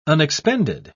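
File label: "unexpended", British English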